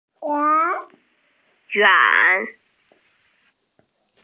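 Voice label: "yuan3", Mandarin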